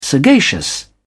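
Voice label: "sagacious", British English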